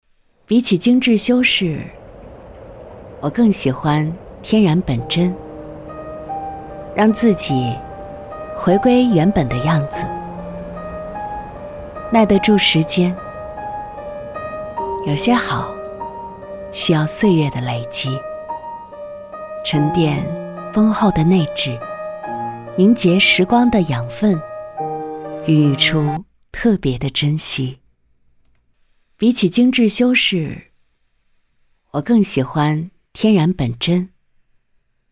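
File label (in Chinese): 女 素人音 感情自述, 国语配音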